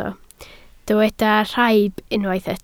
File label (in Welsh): "rhaib", Welsh